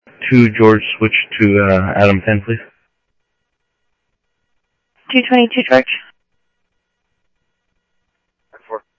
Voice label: Phoenix PD, scanner audio